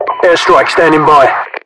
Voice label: airstrike, localized english, UK voiceovers; mpvoice